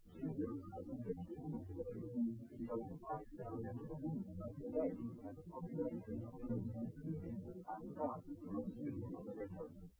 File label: male liverec